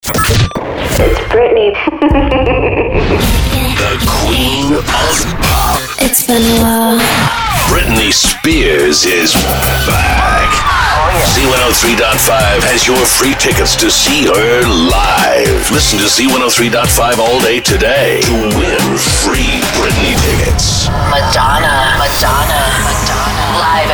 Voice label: Imaging